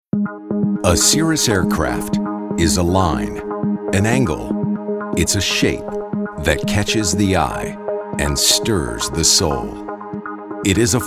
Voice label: informative

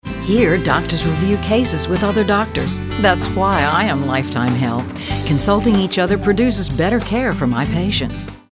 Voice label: friendly

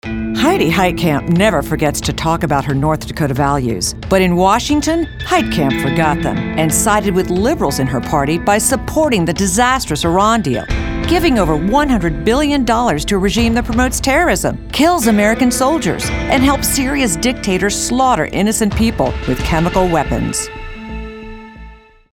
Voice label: Political Negative

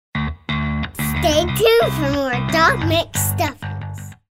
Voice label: imaging

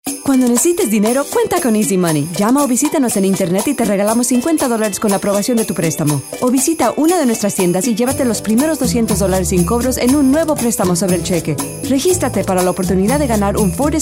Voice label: friendly upbeat

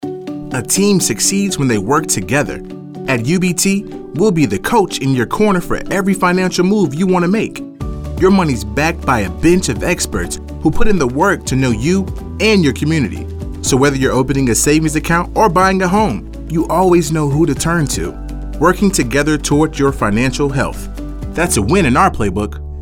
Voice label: african american

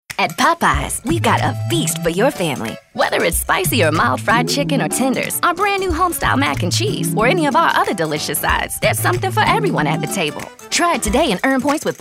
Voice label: smooth

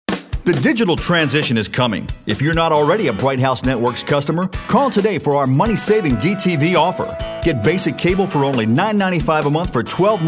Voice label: high energy

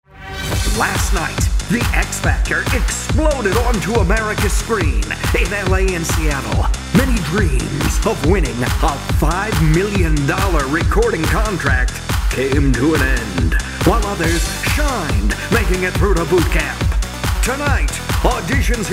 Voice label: animated game show hosts

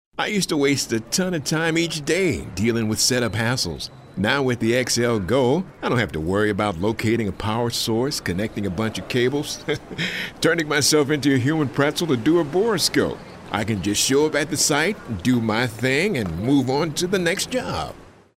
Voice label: real people urban

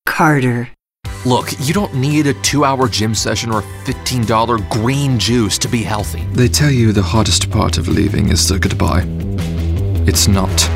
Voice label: Showcase, storyteller

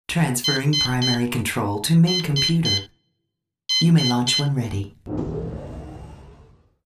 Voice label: anti announcer

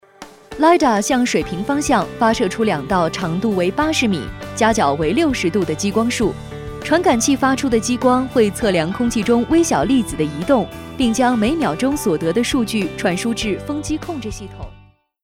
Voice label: Mandarin, Online Learning

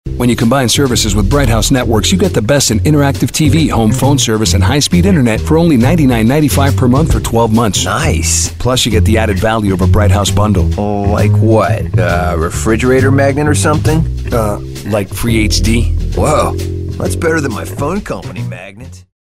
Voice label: real people young friendly genuine

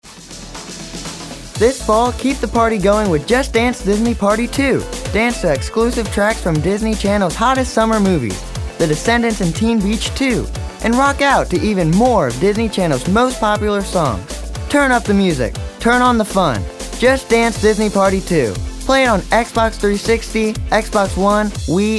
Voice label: Upbeat

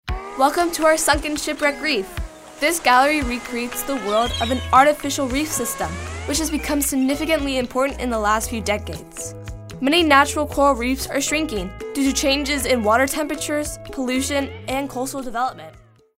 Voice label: storyteller

